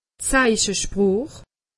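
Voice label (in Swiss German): "zeichesproch", Alsatian; medecine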